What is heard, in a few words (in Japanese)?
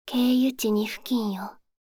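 経由地2付近よ, カーナビ案内音声; カーナビ(で使えるかもしれない)案内音声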